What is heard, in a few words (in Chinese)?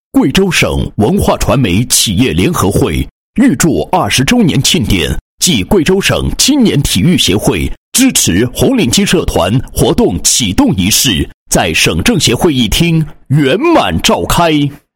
男 宣传广告; 青体协宣传广告